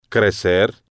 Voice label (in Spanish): "crecer", Spanish